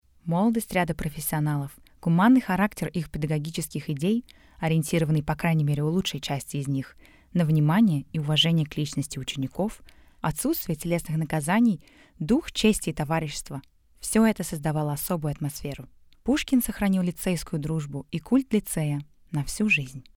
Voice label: Russian standard Unemotional